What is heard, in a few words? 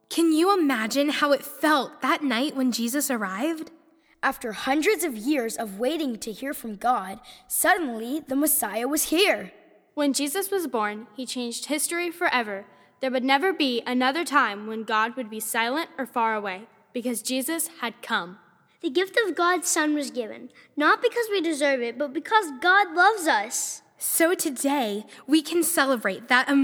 Narration